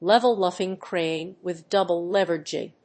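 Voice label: "level luffing crane with double lever jib", English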